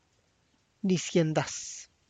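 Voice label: drizzle light rain, Tlacochahuaya Zapotec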